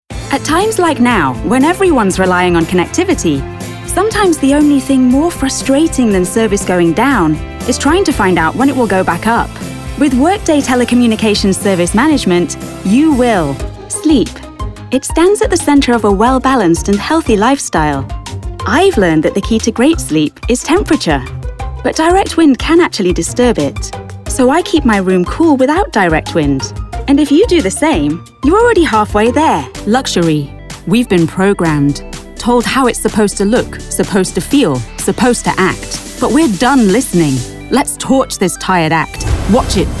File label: Corporate Narration